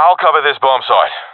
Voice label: guarding bombsite, professional epic; Source Model Packs; Counter Strike Model Pack; CS2

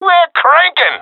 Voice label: round winning, professional epic; Counter Strike Model Pack; CS:GO

